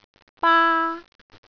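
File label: "baa1", Cantonese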